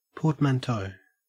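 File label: "portmanteau", Australian English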